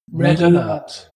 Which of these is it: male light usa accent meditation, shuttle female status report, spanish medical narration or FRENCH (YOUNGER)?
shuttle female status report